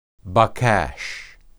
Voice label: baqash, hebrew